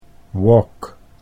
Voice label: "walk", Dutch English

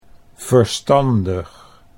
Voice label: "verstandig", Dutch

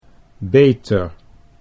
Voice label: "beter", Dutch

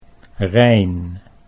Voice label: "Rijn", Dutch

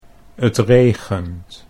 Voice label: "t regent", Dutch

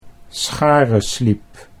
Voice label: "scharensliep", Dutch

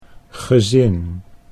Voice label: "gezin", Dutch; stamboom

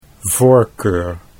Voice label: "voorkeur", Dutch

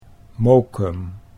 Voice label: "Mokum", Dutch

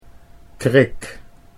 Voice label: "krik", Dutch

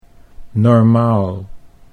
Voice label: "normaal", Dutch

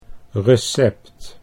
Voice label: "recept", Dutch

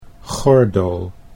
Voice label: "gordel", Dutch

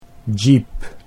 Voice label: "jeep", Dutch

